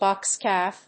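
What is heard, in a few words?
"box calf", English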